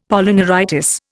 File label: "Polyneuritis", Indian; Dictionary